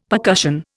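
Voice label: "percussion", Indian English; Dictionary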